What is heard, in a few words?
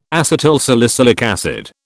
"acetylsalicylic acid", British